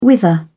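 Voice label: "wither", English, British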